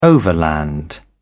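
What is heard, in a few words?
"overland", British English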